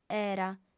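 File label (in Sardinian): "era", female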